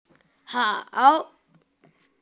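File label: "hao3", Mandarin Chinese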